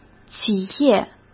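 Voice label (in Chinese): "企业", Mandarin Chinese; chinese flashcards; hsk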